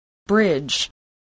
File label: "bridge", spelling